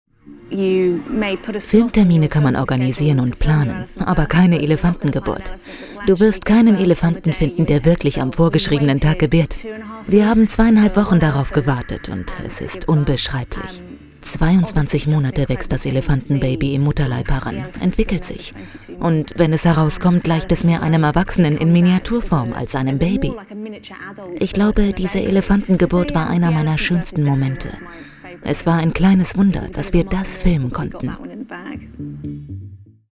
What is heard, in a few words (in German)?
doku, deutsch frauen; sprecher